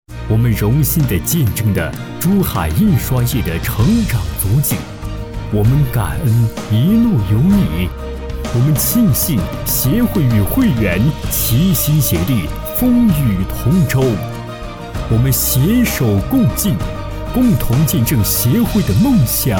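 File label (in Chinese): 行业专题激情展望男声; 珠海印刷三十年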